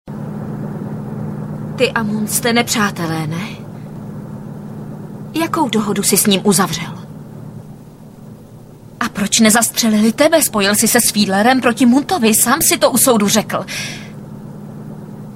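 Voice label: female Czech drama mix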